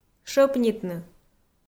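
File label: "шӧпнитны", Komi, female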